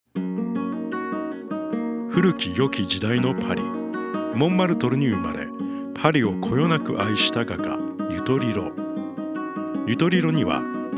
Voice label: Documentary opening